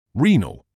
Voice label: "renal", English